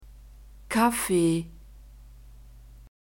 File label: "kaffee", German; soft drinks new words